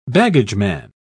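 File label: "baggageman", British English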